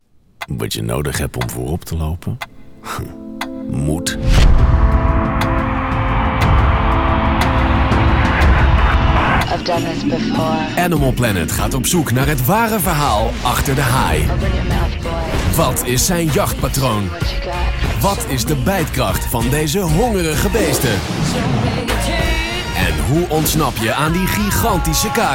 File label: dutch movie trailers